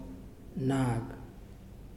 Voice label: Not, Muyuw; Lae Unitech